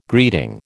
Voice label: "greeting", American